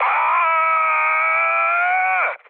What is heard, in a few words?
damaged pilot, speech cze, mood low; War Thunder